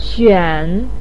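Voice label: "xuan3", Mandarin Chinese, pinyin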